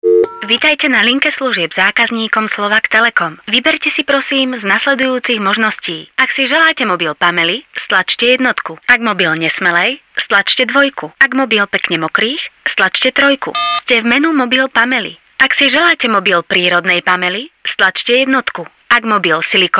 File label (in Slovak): radio